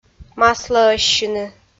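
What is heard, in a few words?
"маслӧӧссьыны", Komi, female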